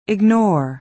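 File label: "ignore", American English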